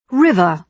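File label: "river", British English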